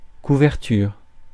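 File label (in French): "couverture", French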